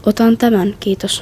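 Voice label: Finnish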